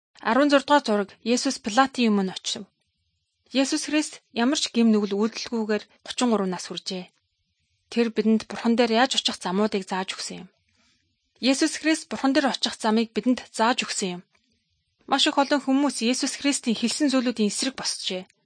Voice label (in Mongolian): good news mongolian